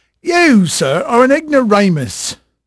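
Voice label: English male, voice; Pirates Of The Caribbean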